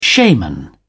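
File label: "shaman", British English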